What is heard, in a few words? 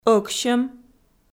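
"ӧксьӧм", Komi, female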